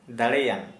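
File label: Santali male strong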